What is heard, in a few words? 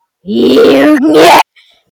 angry tv person